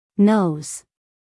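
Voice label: "nose", American English, female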